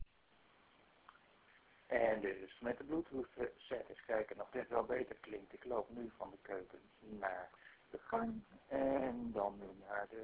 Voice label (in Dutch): opname met headset; HTC touch diamond